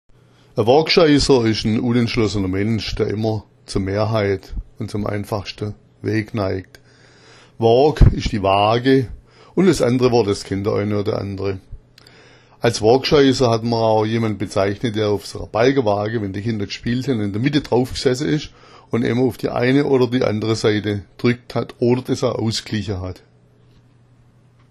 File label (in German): woogscheisser, schwaebisch; Wôôgscheißer ist ein unentschlossener Mensch, der immer zur Mehrheit und zum einfachsten neigt.